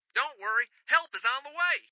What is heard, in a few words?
Radio Chatter "Don't worry! Help is on the way!"